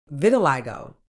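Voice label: "vitiligo", British English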